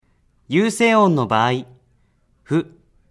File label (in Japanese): 有声音, 母音の無声化